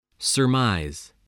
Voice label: "surmise", English

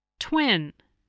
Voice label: "twin", English spelling voice

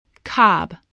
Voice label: "cob", voice

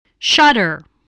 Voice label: "shudder", spelling

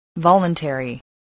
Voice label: "voluntary", spelling

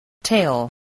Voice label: "tail", English

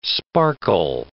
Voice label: "sparkle", English

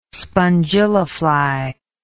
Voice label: "spongilla fly", english

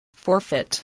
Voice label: "forfeit", English voice, spelling

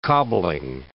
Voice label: "cobbling", English

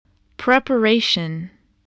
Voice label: "preparation", voice, spelling

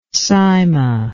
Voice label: "cyma", english

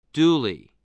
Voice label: "duly", English

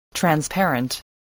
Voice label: "transparent", voice

